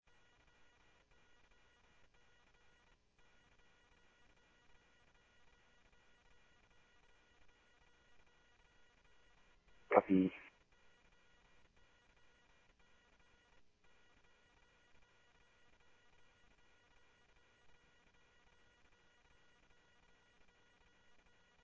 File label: Police, scanner audio